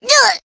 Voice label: Voice damaged